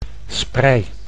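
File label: "sprei", Dutch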